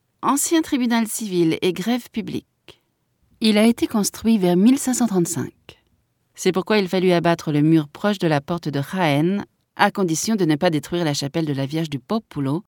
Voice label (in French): audioguia, frances; audioguia baeza